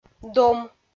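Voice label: "дом", Komi, female; kpv audio dict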